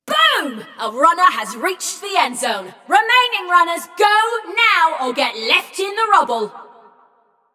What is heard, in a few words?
Scrap Run Runner Reached End, Announcer; Flux